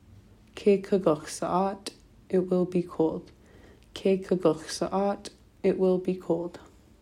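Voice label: it will be cold, Tlingit; future; winter weather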